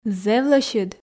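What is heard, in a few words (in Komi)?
"зэв лӧсьыд", Komi, female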